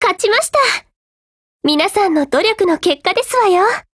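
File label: WIN Japanese, Voice; GF Alarm; G36C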